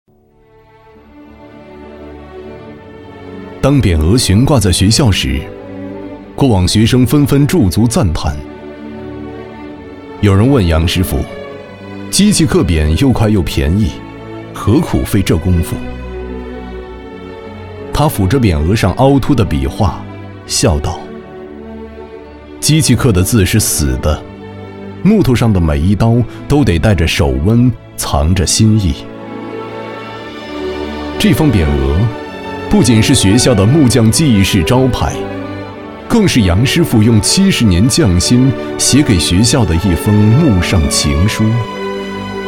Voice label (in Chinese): 男 纪录片; 非遗工作室